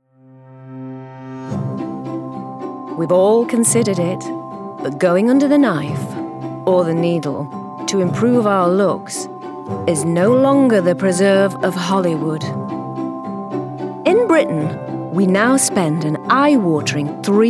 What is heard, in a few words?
Documentary Reel; Natural Accent: Neutral